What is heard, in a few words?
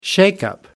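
"shakeup", British English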